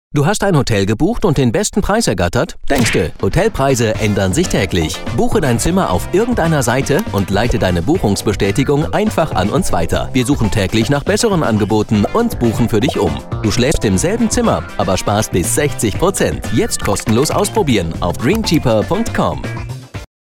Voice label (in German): sprecher werbung; dreamcheaper